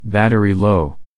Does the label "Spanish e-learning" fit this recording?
No